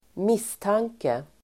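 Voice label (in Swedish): "misstanke", Swedish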